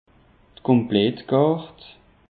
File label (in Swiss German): "komplettkart", alsace; peche